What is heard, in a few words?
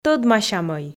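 "тӧдмасямӧй", Komi, female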